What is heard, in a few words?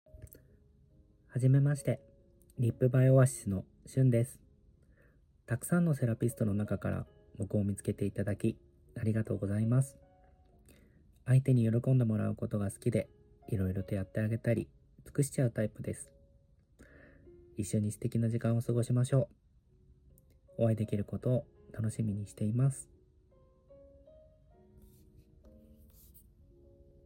voice, therapist